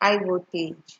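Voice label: "high voltage", Nigerian Pidgin, female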